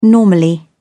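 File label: "normally", British English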